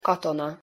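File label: "katona", Hungarian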